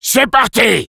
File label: battle cry, fr; Sniper